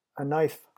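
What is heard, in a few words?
"a knife", English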